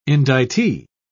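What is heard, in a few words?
"indictee", British English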